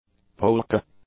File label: "polka", English